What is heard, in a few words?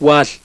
melpa